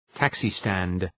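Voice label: "taxi stand", English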